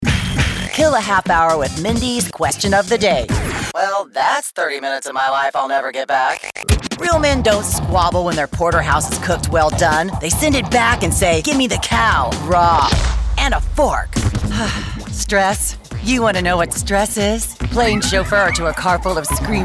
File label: Imaging